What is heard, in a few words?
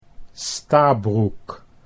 "Stabroek", Dutch